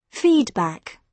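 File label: "feedback", British English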